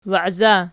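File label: "waiza" nominative, Archi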